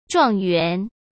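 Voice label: "状元", Mandarin Chinese; chinese-flashcards; hsk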